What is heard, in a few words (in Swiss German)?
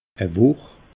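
"wuch", Alsatian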